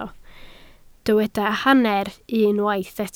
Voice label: "hanner", Welsh

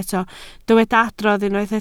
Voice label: "adrodd", Welsh

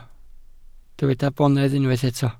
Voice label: "bonedd", welsh

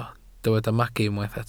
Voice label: "magu", Welsh

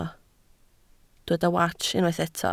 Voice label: "wats", Welsh

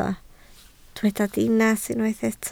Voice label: "dinas", Welsh

